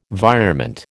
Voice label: "virement", American